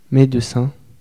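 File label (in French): "médecin", French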